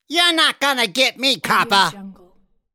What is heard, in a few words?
male you're not going to get me copper